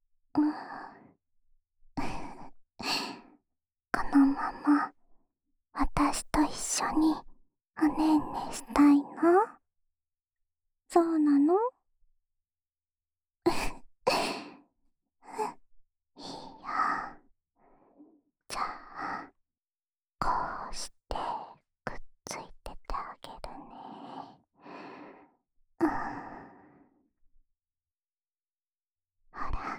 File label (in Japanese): 添い寝ループ（右耳）; ASMR; おま○こ係１